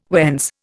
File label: "whence", Indian